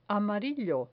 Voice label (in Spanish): "amarillo", Spanish